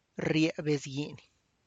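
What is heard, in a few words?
tlacochahuaya, Zapotec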